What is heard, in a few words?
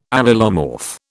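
"allelomorph", British English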